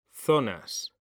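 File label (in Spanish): "zonas", Spanish, male; mekanta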